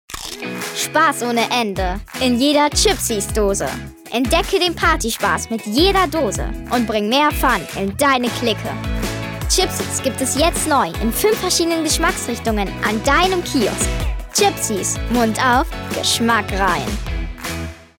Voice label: Werbung; Chipsies

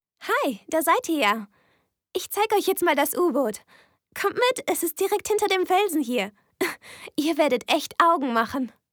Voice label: Synchron

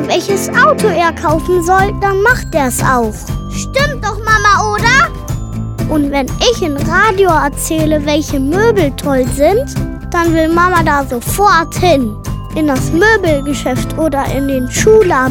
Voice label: Werbung Kinderstimmen

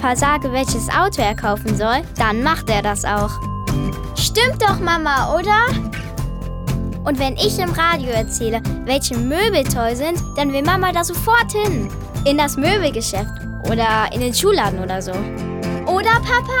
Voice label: Werbung Kinderstimmen

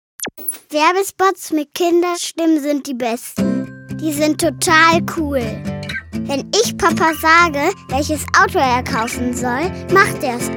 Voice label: Werbung Kinderstimmen

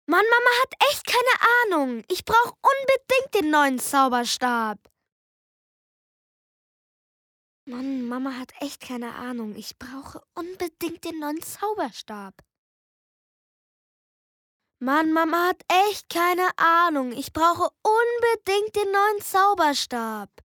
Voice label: Hörspiel; Zauberstab

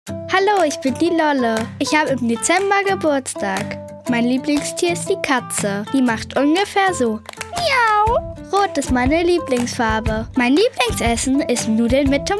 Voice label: Selbstvorstellung Selbstvorstellung Teenager

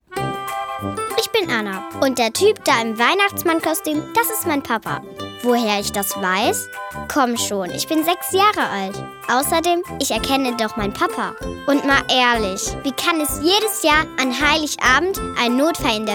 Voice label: Erklärfilm

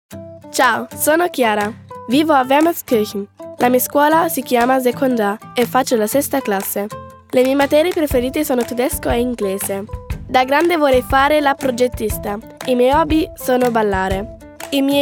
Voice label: Selbstvorstellung ITA

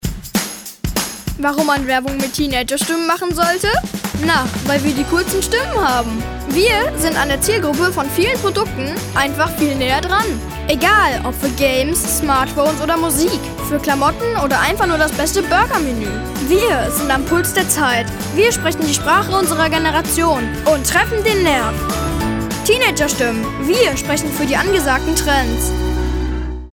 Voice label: Werbung Teenagerstimmen

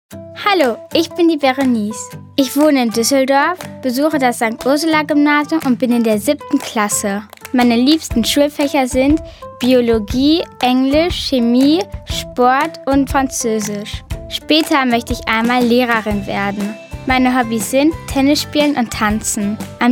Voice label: Selbstvorstellung DE

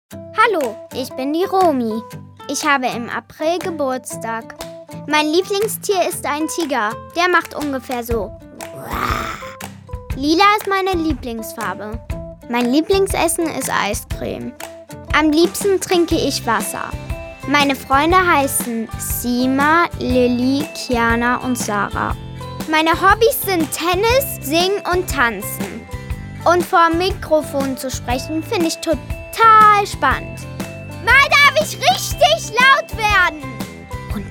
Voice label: Selbstvorstellung DE

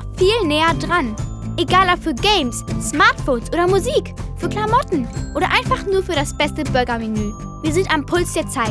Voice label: Teenager Stimme

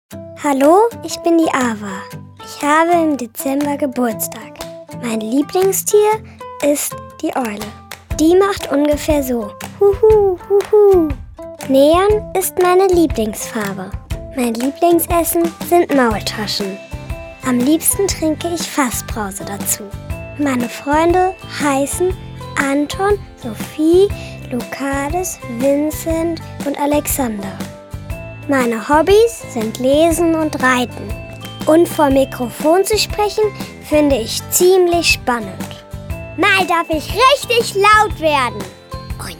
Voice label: Selbstvorstellung DE

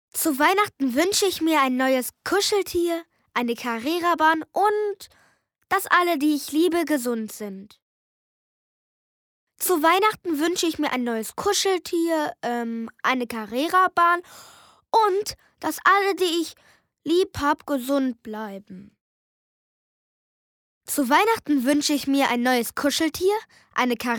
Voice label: Werbung Wunschzettel

